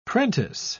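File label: "prentice", British English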